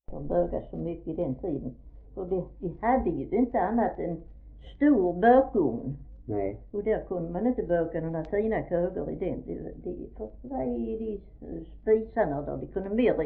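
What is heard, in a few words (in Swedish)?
Fleninge Skåne, dialekter; Folkminnen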